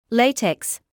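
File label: "latex" English, British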